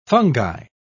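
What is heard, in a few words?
"fungi", British English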